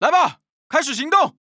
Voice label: Neutral